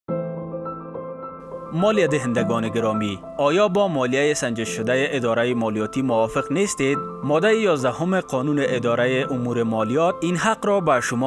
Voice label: Dari; ARD